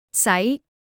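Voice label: "sai2", Cantonese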